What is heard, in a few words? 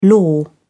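"lore", British English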